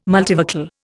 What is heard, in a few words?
"multivocal", Indian